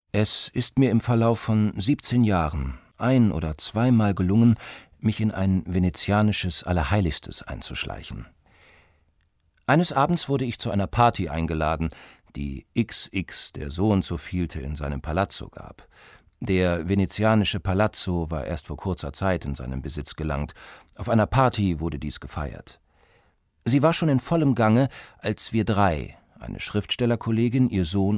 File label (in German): Erzaehlung; Palazzo kurz WDR